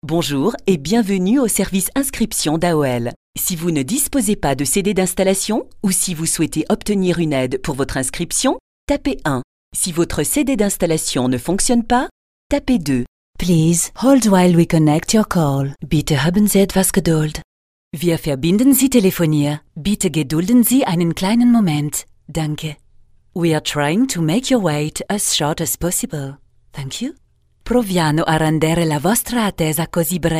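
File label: French, female, elearning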